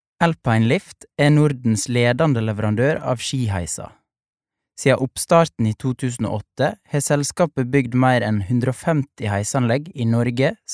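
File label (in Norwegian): no informativ, norsk, mann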